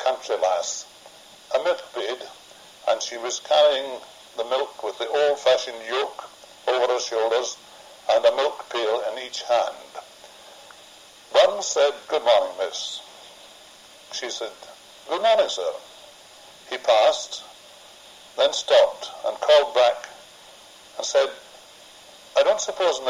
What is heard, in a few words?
Ayrshire, English Varieties; Lowlands